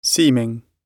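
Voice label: "seeming", British English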